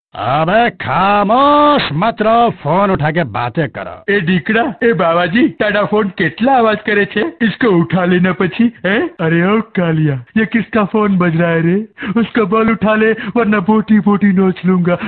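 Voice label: funny hindi dialog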